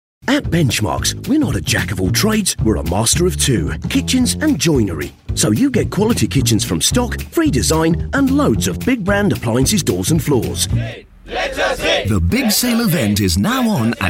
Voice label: accents commercial, Scottish